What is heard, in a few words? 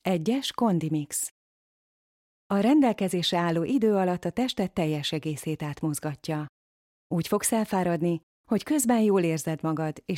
HU, neutral informative; GoLocalise